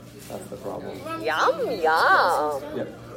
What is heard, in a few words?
alligator saying yum yum; dream box